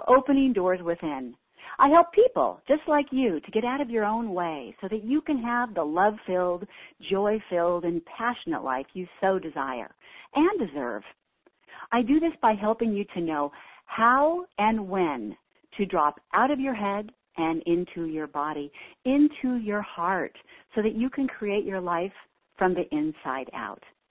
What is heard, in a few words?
Magical Morning Meditation Intro